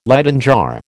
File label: "Leyden jar", American